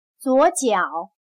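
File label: "左脚", Chinese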